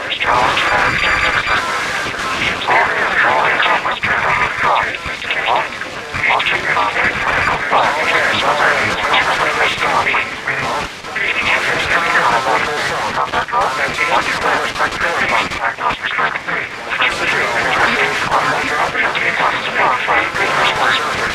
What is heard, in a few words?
comm chatter; Quake 4 Alpha